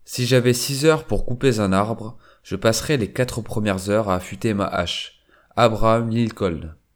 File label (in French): Enregistrement avec micro bird